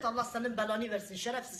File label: excellent team, scientist; svencoop